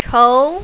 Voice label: "chou2", mandarin, female